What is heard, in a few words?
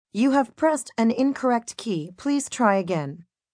Incorrect Key, Voicemail, telephone messages, female; VoiceBox